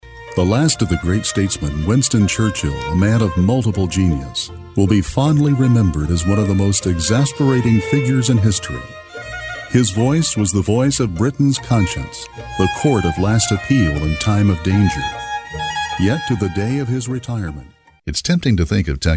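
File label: English American female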